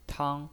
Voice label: "tang1", pinyin